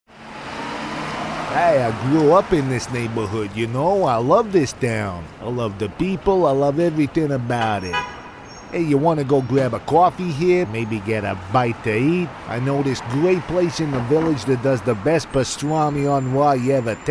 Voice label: new yorker